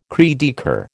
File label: "cri de coeur", American